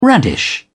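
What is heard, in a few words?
"radish", British English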